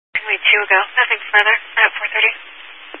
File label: Highway Patrol, Phoenix Metro East Area, scanner